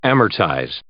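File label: "amortize", English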